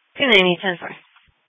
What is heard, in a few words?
Police Dispatch; sdr